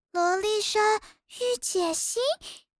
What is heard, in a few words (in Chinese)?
萝莉